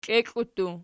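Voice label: "klieklu" plural, Archi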